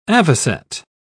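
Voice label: "avocet", British English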